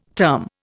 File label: "term", Indian